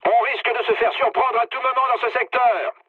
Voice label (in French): chat, speech fr